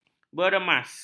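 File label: "beremas", Malay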